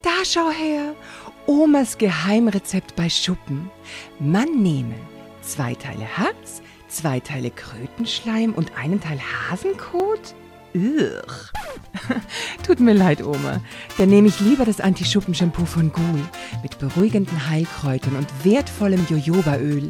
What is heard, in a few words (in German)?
Radio Werbung